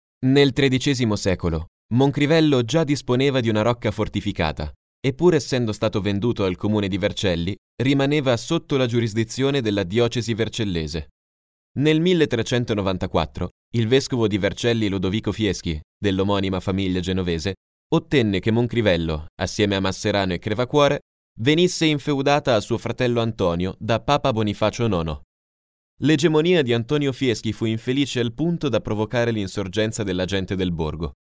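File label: Italian, male, audioguide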